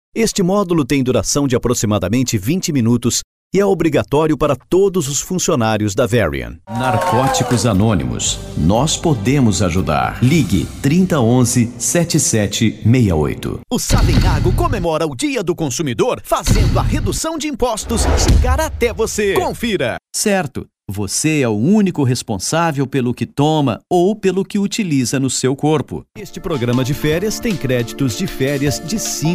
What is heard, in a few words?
portuguese Brazil male